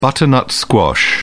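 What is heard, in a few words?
"butternut squash", English, British English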